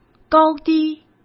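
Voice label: "高低", Mandarin Chinese; hsk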